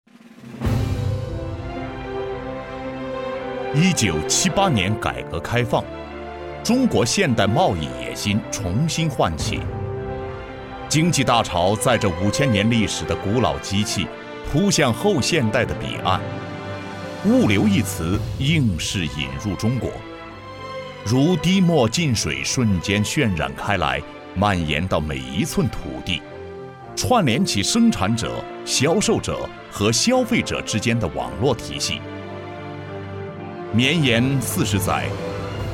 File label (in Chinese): 宣传片 沉稳; 企业; 中国快递